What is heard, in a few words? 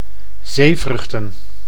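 "zeevruchten", Dutch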